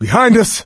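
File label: watch out behind, voice, male; Left 4 Dead 2; coach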